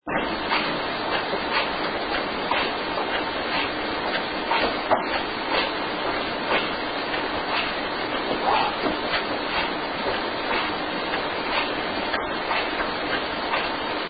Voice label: Living Room male what